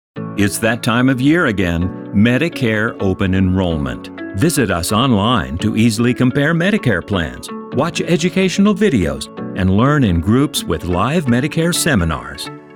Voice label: commercial, vo